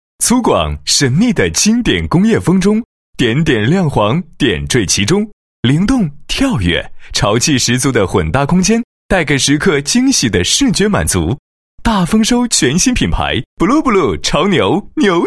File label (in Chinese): 男 产品介绍; Blublu潮牛宣传介绍